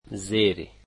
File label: under, Dari